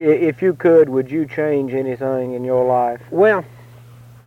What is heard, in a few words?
Interview with 65 year old white male Mountain Rest South Carolina; american english dialect